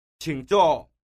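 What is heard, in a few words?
qing zuo, Chinese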